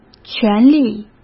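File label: "权力", Mandarin Chinese; chinese-flashcards; hsk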